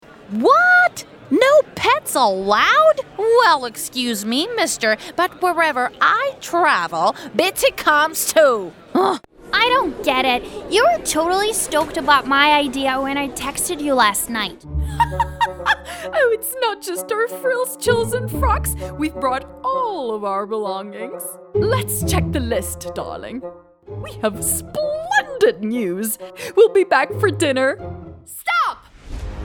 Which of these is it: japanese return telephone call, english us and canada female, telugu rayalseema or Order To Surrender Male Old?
english us and canada female